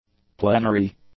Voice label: "plenary", english; adjectives

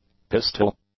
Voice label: "pistol", English; nouns

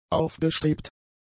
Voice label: "aufgestrebt", German; adjectives

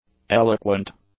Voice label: "eloquent", English

